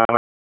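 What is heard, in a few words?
Police Northeast District Dispatch, Raleigh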